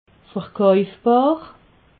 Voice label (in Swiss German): "verkaufbar", alsace